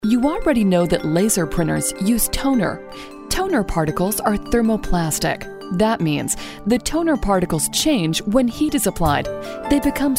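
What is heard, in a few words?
English US, female, e learning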